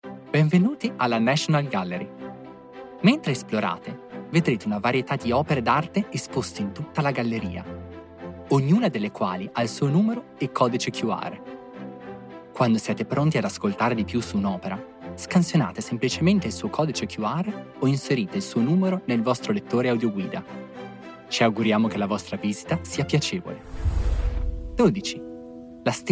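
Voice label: Italian, Narration; voice demos; GoLocalise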